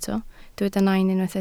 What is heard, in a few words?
"nain", Welsh